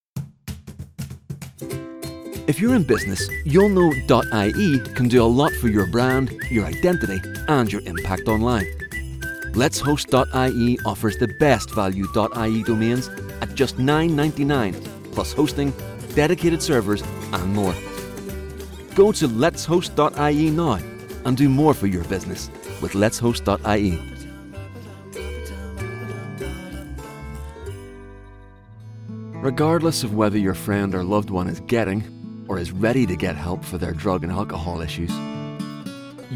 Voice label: Commercial